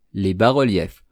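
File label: "les bas reliefs", French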